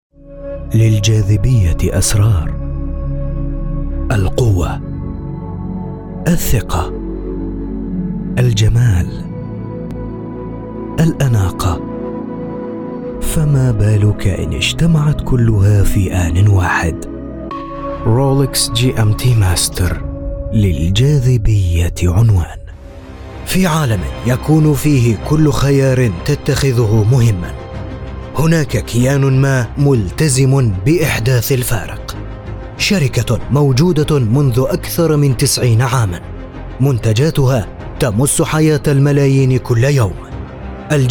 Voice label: commercial